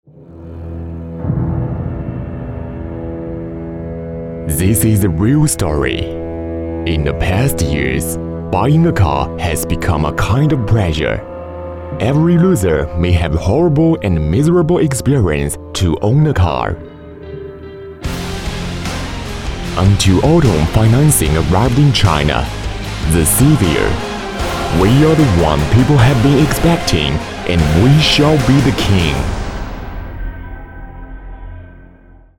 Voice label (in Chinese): 英男 英文广告